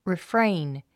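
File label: "refrain", English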